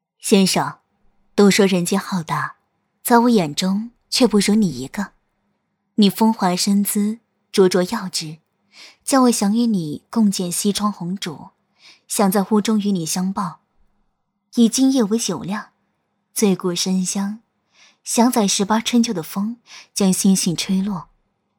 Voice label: 御姐御妈角色音

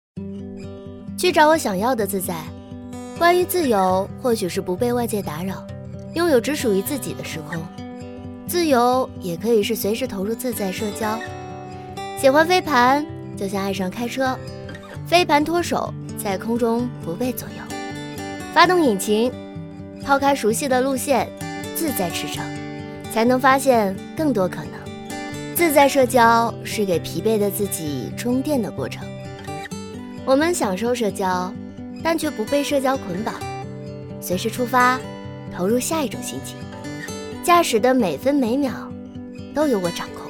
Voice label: 自然介绍风景

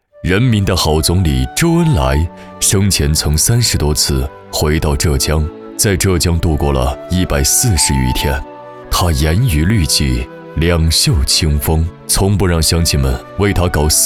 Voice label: 专题稳重成熟; 嘉善支行

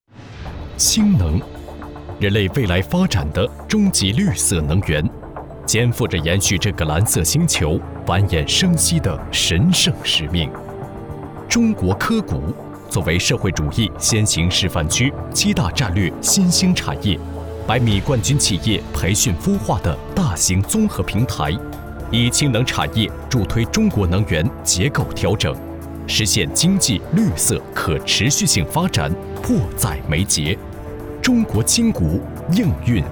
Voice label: 宣传政府类; 宣传政府类中国氢能产业

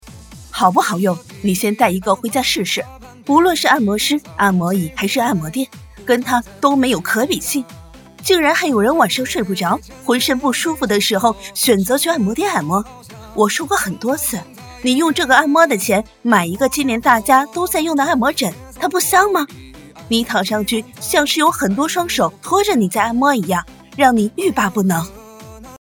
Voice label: 广告

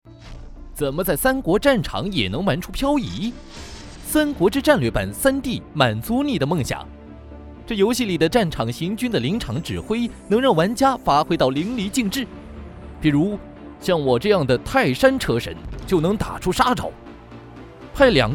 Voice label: 游戏广告; 三国志·战略版

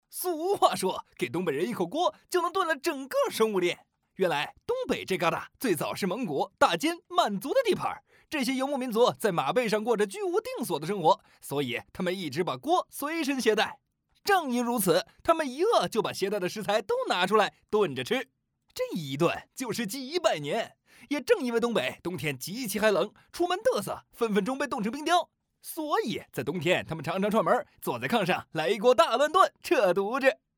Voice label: 轻松搞怪 动画; 抖音动画飞碟说

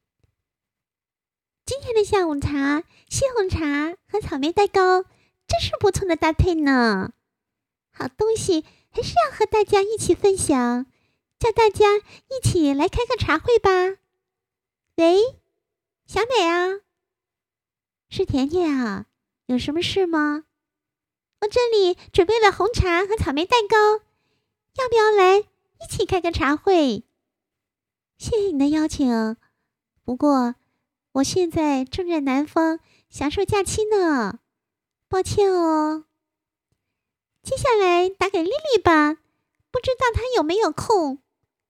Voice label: 试音动画

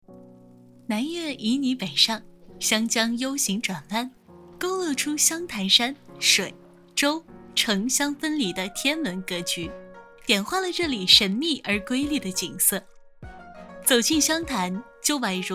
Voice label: 宣传片文旅配音

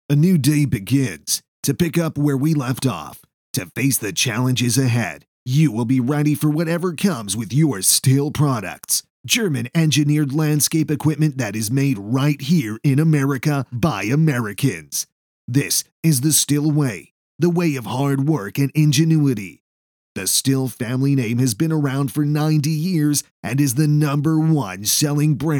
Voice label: 美式 英语 干音

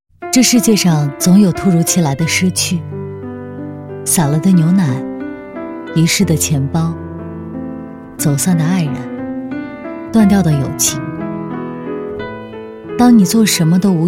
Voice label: 旁白类; 失去

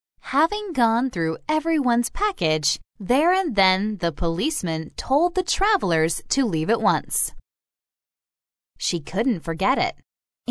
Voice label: 外籍英语录音材料听力女声部分美式口音

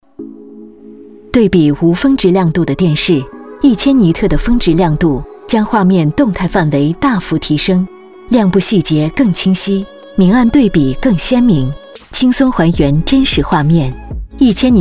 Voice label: 广告理性专业; 小米电视

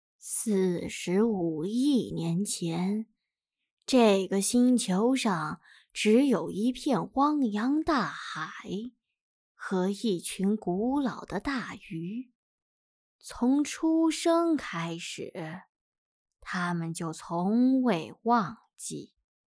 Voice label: 老年音

